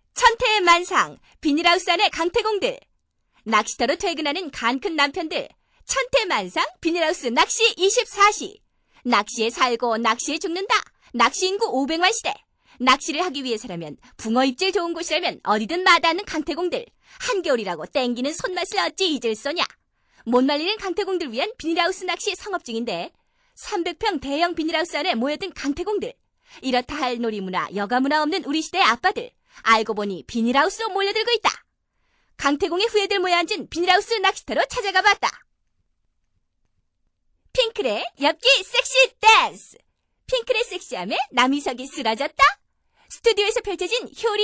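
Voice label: Korean female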